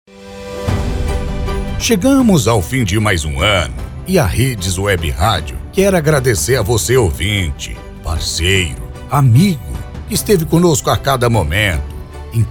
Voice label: locução mensagem de ano novo

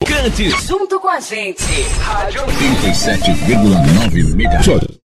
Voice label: vinheta radio

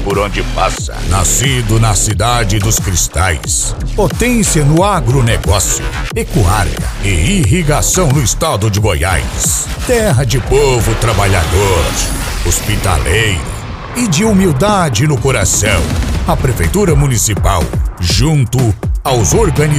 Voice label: abertura de rodeio voz grave voz impacto

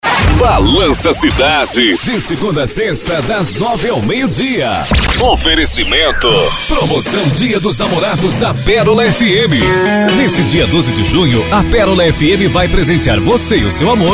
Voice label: locutor

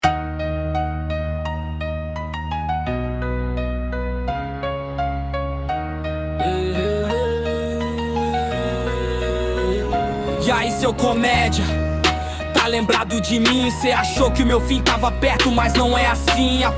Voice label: voz oprimido

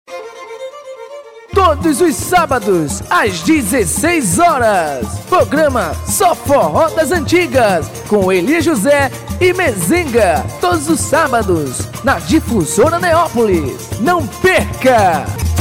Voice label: comercial, locutor; So Forro Das Antigas; Difusora Neopolis

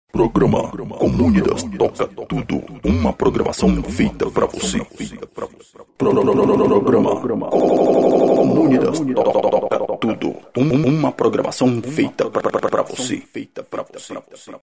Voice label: off vinheta programa radio; comunidas toca tudo pro voce

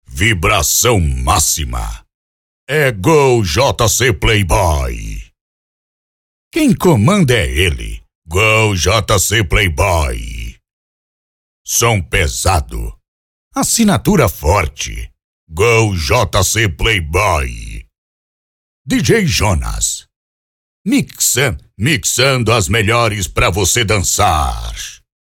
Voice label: vinheta pra dj voz grave impacto

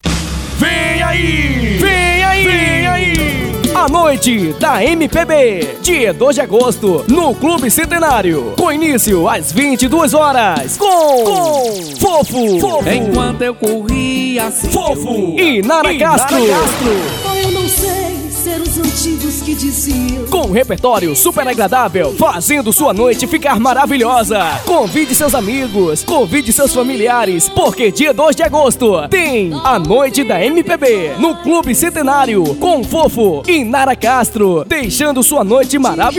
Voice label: spot; noite da mpb

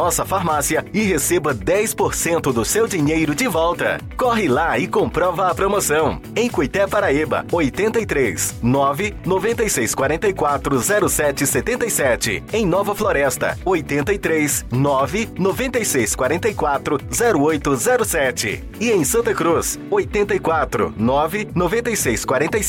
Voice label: Propaganda Dia Semana Mês Do Consumidor, Anúncio Vinheta Mensagem Texto Publicidade Spot Comercial; BANCO DE VOZES VINHETAS

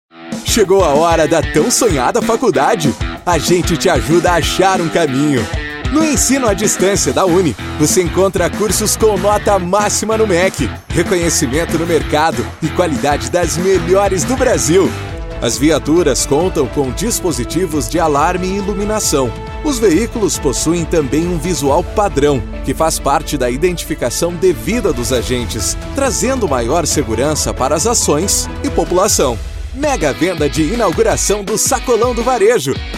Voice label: locutor

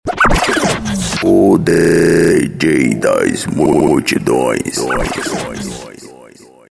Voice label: vinheta o dj das multidoes; CGMR PRODUCOES

